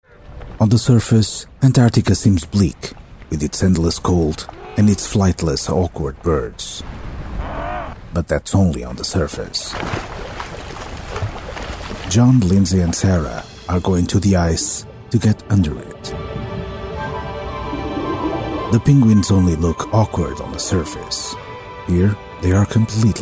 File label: Portuguese English Male Documentary